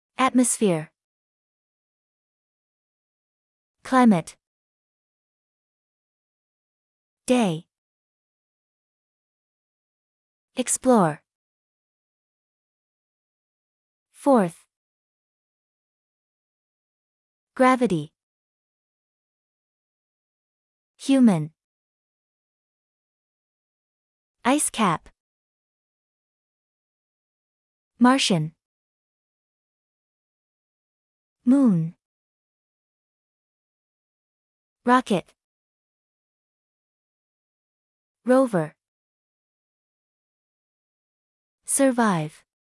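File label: American; mars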